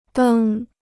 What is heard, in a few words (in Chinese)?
"登", Chinese